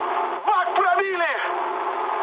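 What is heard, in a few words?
tank damaged, mood med, Russian; War Thunder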